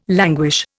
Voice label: "languish", Indian